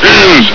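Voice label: "is!", male; hl2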